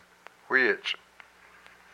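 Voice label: "my breath", Hupa, male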